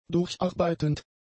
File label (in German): "durcharbeitend", German; adjectives